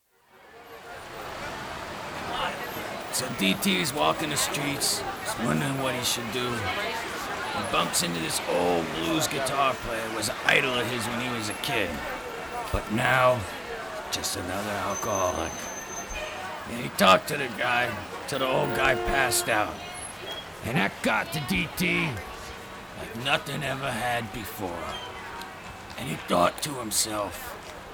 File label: Narration